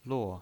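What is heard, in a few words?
"luo", pinyin voice, Mandarin Chinese, fourth tone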